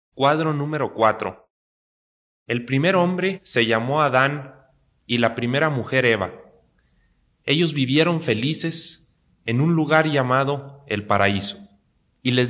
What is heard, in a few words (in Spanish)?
good news spanish mexico